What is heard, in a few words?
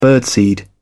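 "birdseed", British English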